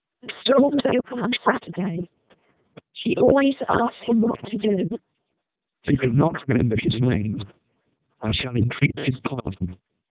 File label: eng, speechsamples, MELPe 2400